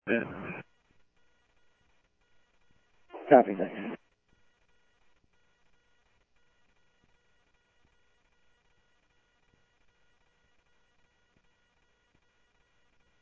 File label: scanner audio trunk; ASU; ASU Park 1